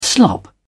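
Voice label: "slop", British English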